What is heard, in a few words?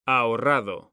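"ahorrado", Spanish, male; mekanta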